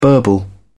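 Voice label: "burble", British English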